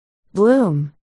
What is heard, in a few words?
"bloom", articulation